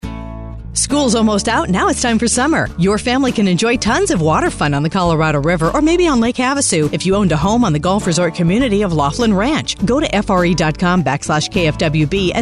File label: female